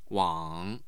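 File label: "wang3", Mandarin Chinese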